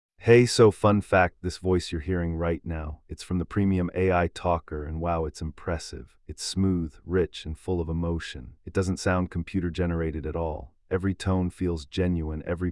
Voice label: English